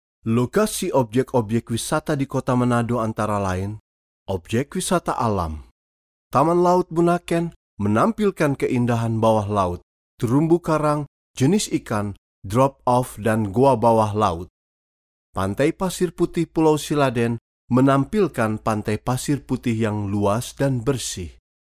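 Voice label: Indonesian, male, voice over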